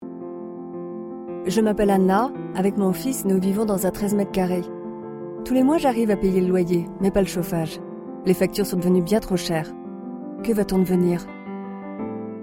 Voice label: testimonial; secours catholique